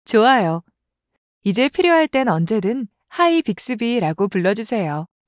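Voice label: wake up succeed Korean; Bixby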